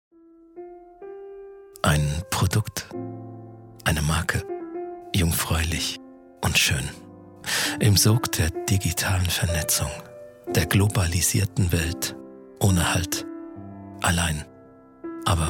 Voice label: lyric sanft sensitive